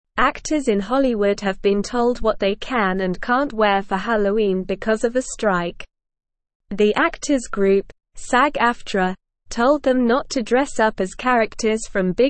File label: English Newsroom Lower Intermediate SLOW Reading; Hollywood Actors on Strike No Movie Costumes Allowe